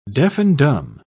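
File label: "deaf-and-dumb", British English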